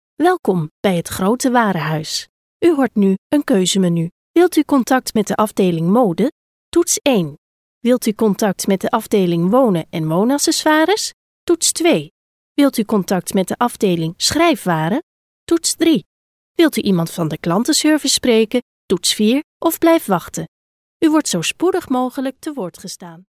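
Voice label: Dutch, female, e-learning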